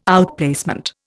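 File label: "outplacement", Indian English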